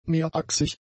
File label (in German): "mehrachsig", German; adjectives